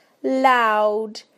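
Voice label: "loud", British English; PictureDictionary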